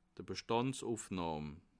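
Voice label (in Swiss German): "bstandsufnahm", Alsatian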